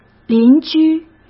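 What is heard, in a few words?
"邻居", Mandarin Chinese; chinese-flashcards; hsk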